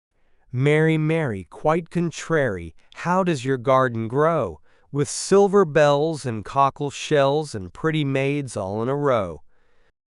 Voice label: male US, classic rhymes; Mary Mary Quite Contrary

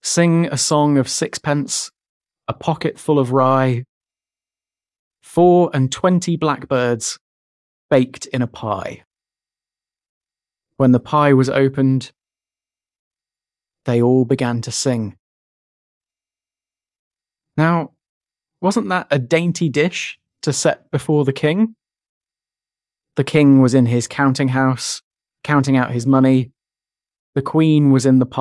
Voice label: male brit; Sing A Song of Sixpence

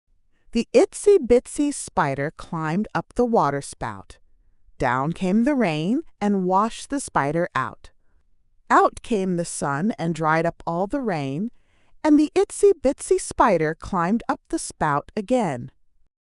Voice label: fem us; Itsy Bitsy Spider

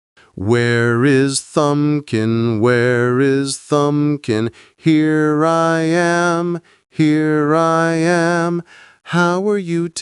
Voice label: Where is Thumbkin male aus

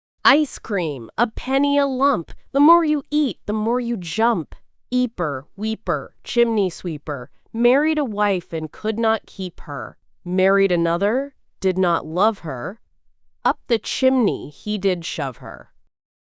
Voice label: fem us; Ice Cream a Penny a Lump

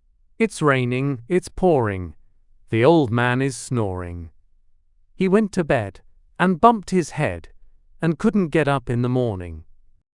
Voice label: male brit; Its Raining Its Pouring

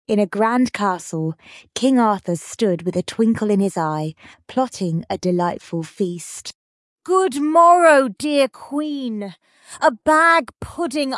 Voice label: fem brit; When Good King Arthur Ruled This Land

